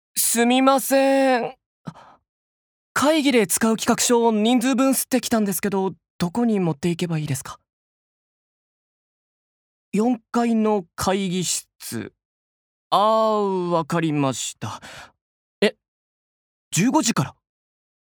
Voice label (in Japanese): アニメ